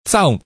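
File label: "chau", Hakka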